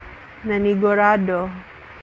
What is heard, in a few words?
Cebuano; making sure - nanigurado